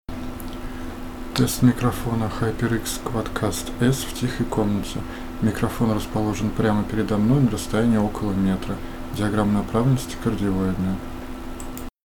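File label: hyperx quadcast s voice cardioid; HyperX QuadCast S - Голос Кардиоида; USB микрофоны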